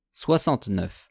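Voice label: "soixante-neuf", French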